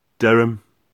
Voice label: Durham England local pronunciation